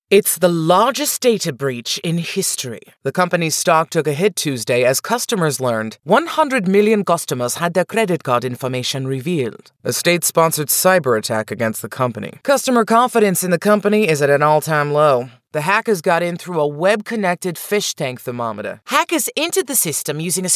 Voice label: Accents Sampler